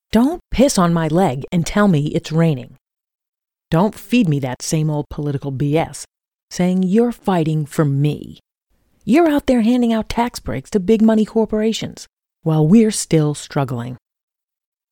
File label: Political Spot